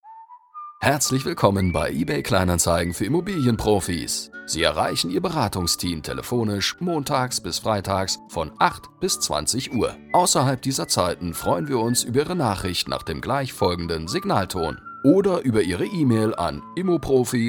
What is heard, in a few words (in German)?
telefonansage; ebay